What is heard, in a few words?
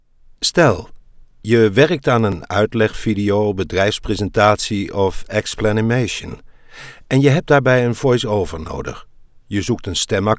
Voice overs